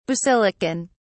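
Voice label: "basilican", British English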